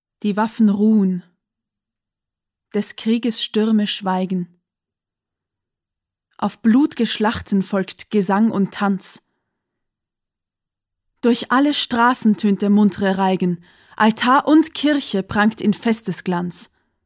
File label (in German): monolog de; Friedrich Schiller – „Die Jungfrau von Orleans“